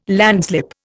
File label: "landslip", Indian